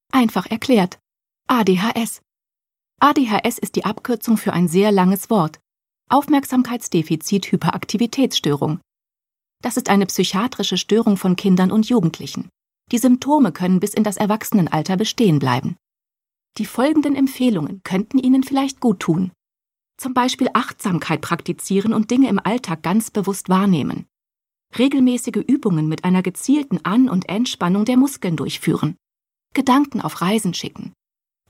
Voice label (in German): Erklaerfilm; ADHS; 1aPharma